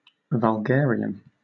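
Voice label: "vulgarian", British English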